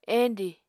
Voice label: "endi", Apatani